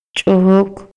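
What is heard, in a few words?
Maya, male; INALI; MayaYuc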